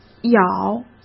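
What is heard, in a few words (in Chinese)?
"咬", Mandarin Chinese; hsk; chinese flashcards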